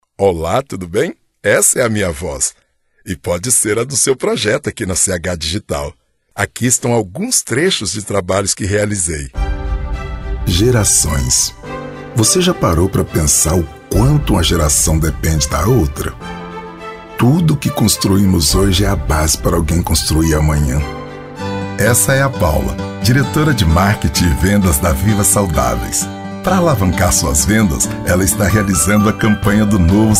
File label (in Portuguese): Voz Masculina